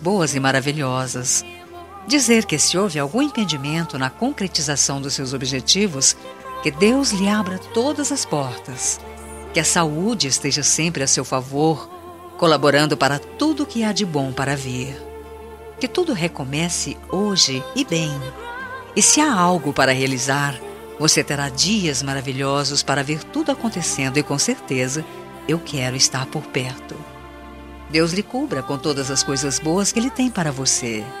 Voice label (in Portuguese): ANIV NORA DISTANTE FEM